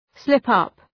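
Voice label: "slip up", English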